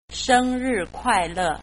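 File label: happy birthday chinese mandarin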